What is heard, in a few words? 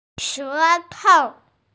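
"she2tou5" Mandarin Chinese, female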